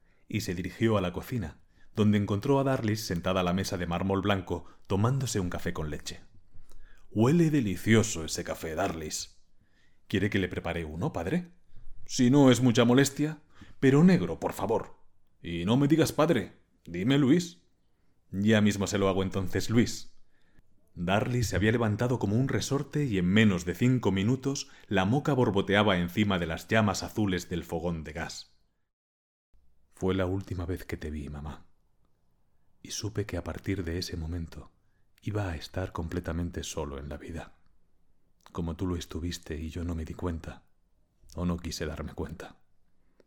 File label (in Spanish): audiolibros; locutor